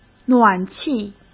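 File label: "暖气", Mandarin Chinese; hsk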